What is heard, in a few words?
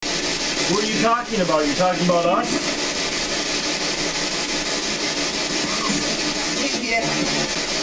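Yes Heck Yeah Slow, EVP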